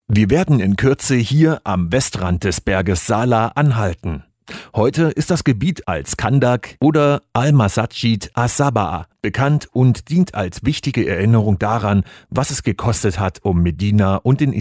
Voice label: german male